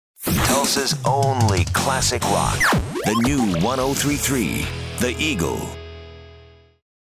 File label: Artist Sweeper Close